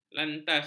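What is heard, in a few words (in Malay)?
"lantas", Malay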